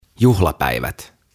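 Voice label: "Juhlapäivät", "Special Days", Finnish, English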